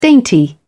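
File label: "dainty", British English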